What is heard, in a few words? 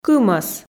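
"кымӧс", Komi, female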